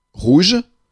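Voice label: "rouge", French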